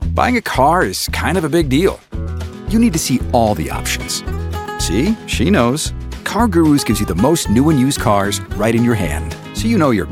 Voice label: Car Gurus Commercials